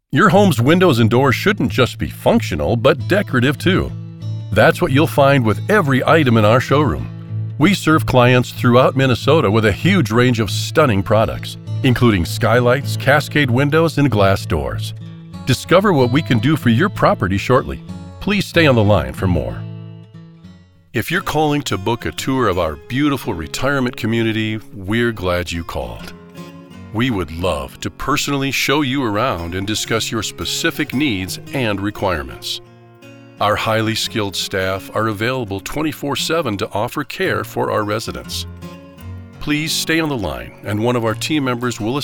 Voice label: ON HOLD